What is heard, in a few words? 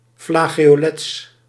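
"flageolets", Dutch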